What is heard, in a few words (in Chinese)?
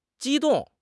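"机动", Chinese; HSK; HSK6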